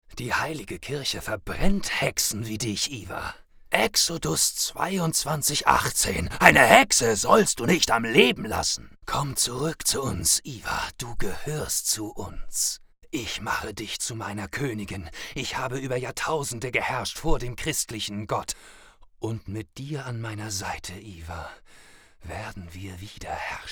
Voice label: german videogames lipsync

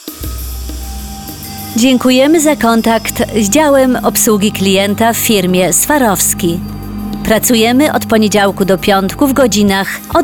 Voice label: ivr pl; swarovski

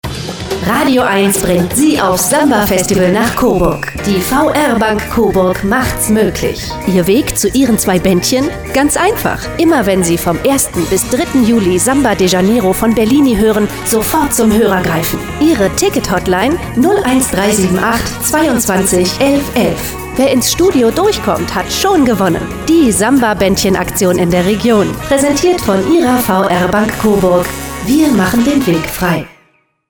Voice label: spot; vr-bank co; samba 2019

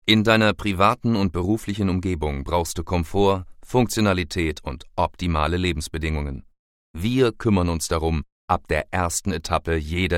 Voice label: german corporate

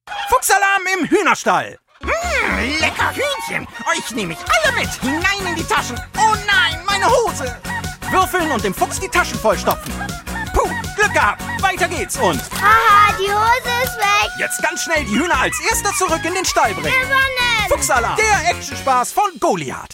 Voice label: tv spot; fuchs alarm

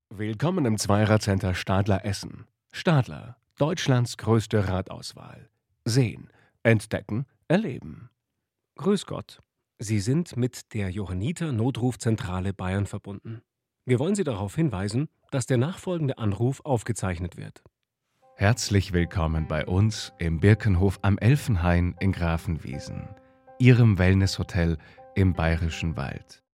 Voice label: telephone mix

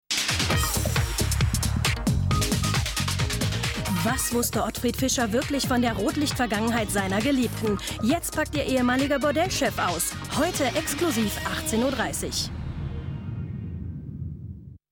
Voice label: trailer; ottfried fischer

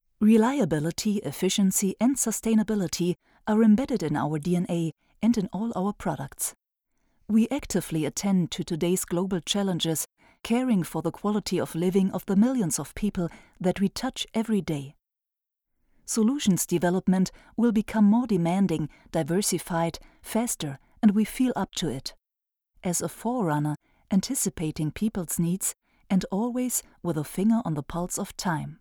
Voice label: english narrat, Sprecherin

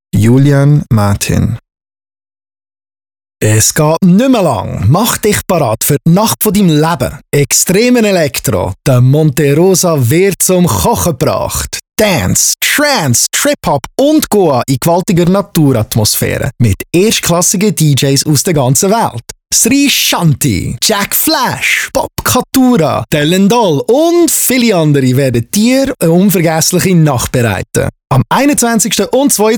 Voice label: spot jung

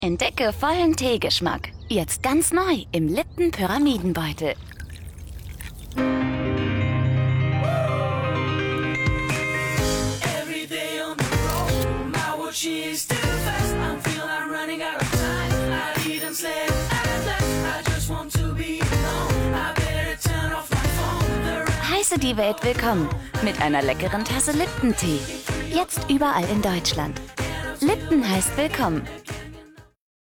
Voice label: tv spot; lipton 2015; Muttersprache Deutsch

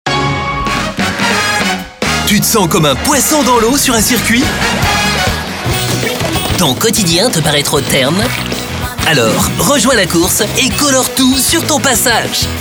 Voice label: commercial tv spot

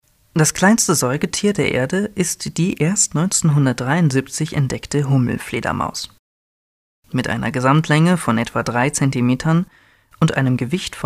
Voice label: elearn

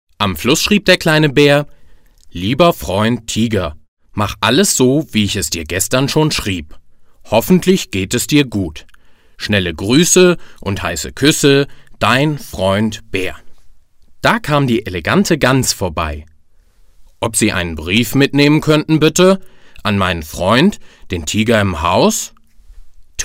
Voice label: dialog

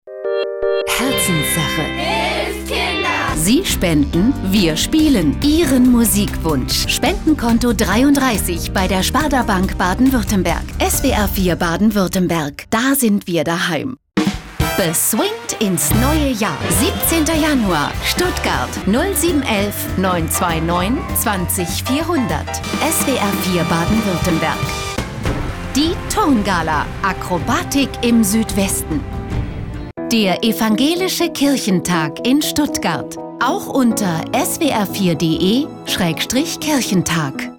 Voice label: jingles

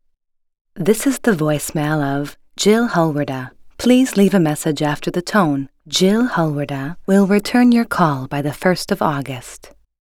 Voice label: mailbox

